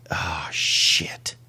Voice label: quiet ahh shit

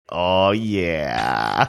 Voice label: drawn out aw yeah

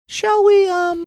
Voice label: saying show we um high pitched

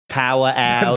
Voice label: australian accent; power out

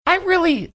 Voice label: saying i really cracked voice

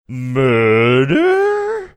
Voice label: funny; murder

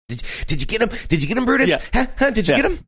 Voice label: saying did ya get em did you get em excited dog; brutus

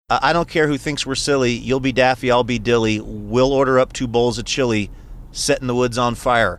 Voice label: reciting lyrics

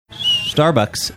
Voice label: saying starbucks sibilant whistle

